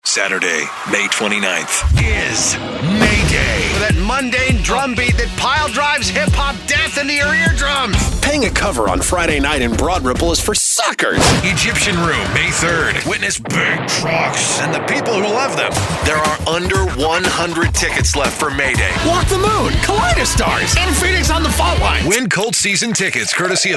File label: Male VO Talent Promos and Imaging